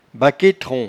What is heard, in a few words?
"baquetrons", patois jura